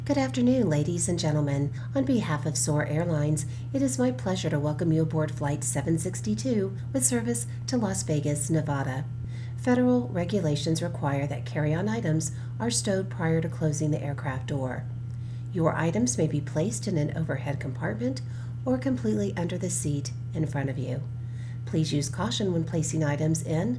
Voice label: Airline Welcome